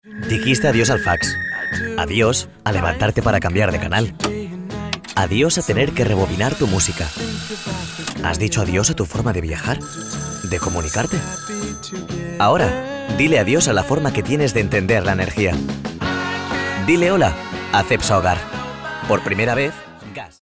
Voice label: male spanish energy comercial; ideasonora

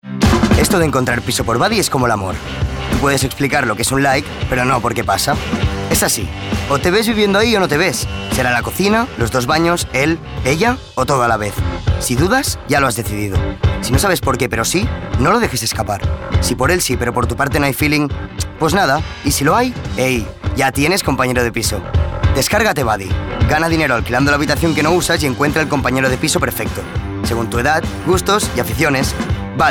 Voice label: male spanish renting comercial; ideasonora

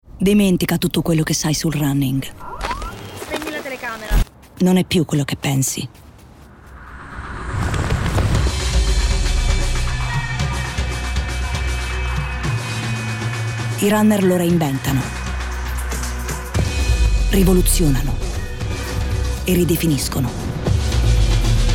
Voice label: female italian comercial; ideasonora

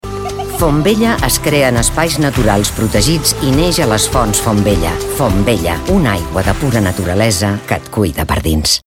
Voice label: female catalan comercial; ideasonora

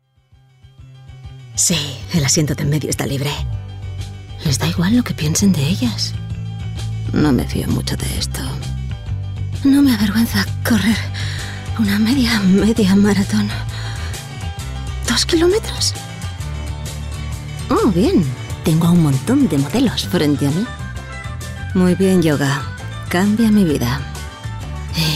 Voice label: female spanish acting comercial; ideasonora

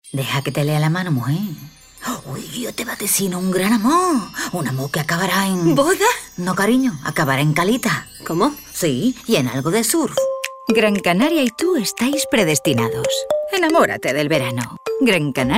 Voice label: female spanish travel comercial; ideasonora

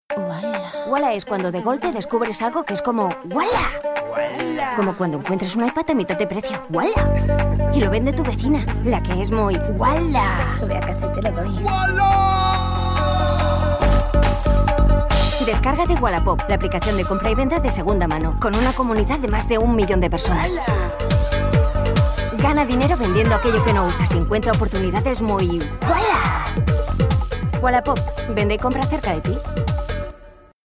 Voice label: female spanish app comercial; ideasonora

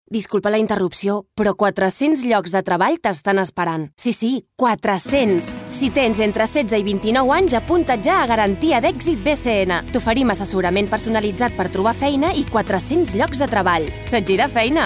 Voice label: female catalan promo comercial; ideasonora

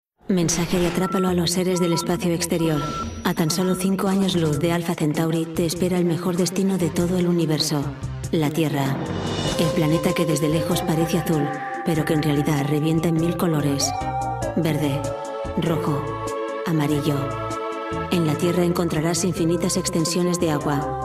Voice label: female spanish travel brand comercial; ideasonora

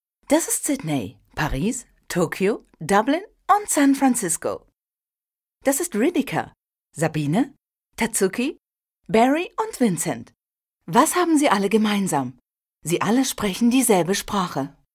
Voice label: female german travel comercial

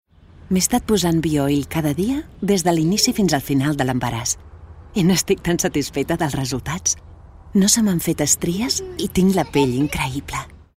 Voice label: female catalan gel comercial; ideasonora

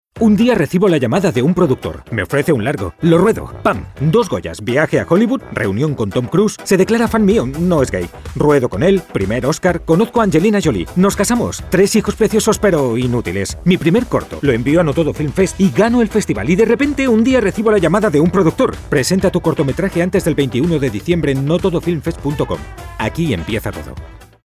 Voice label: male spanish film comercial; ideasonora

